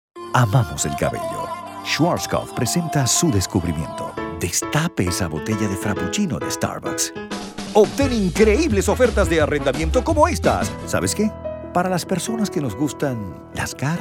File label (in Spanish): Comercial